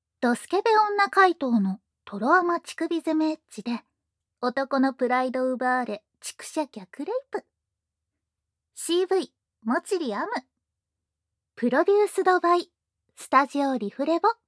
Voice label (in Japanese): タイトルコール, ASMR